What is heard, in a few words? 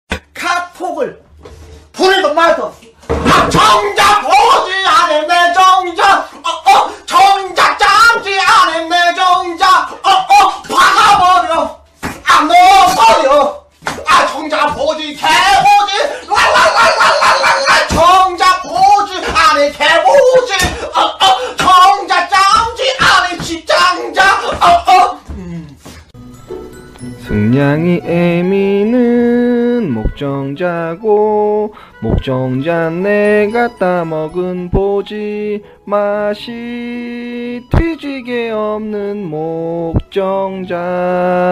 scout cheers